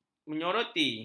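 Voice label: "menyoroti", Malay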